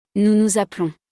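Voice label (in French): "nous nous appelons", French; conjugaison; le verbe s'appeler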